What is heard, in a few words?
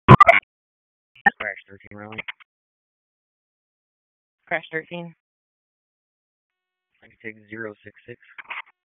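Police Downtown District Dispatch, p25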